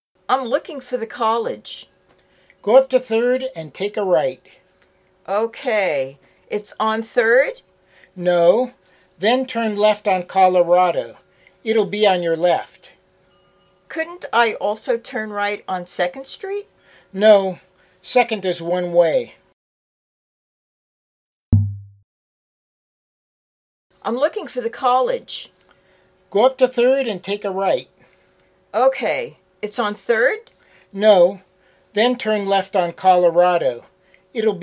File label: Natural Dialog